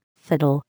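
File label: "fiddle", English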